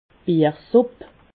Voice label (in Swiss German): "biersupp", Alsace